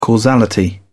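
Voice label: "causality", British English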